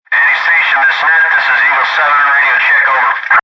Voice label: Military Radio Communication Short Wave Radio Walkie Talkie; Radio Dispatch Sound Effects